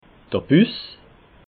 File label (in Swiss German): "bus", alsace